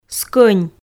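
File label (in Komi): "скӧнь", Komi, female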